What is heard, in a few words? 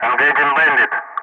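"engaging bandit", English; Ka-50; Wingman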